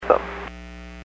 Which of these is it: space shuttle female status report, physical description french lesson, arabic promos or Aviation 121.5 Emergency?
Aviation 121.5 Emergency